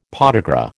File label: "podagra", American